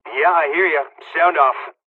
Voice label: dialogs polish; War Thunder

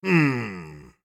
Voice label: scav mutter, voice; Escape from Tarkov; scav4

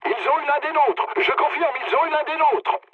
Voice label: aircraft destroyed mood low, speech fr

